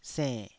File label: "senn3", taiwanese syllable